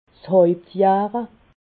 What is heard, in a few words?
"hauptjahre", alsace